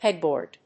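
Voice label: "pegboard", English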